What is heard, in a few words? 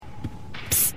Screech Psst Sound effect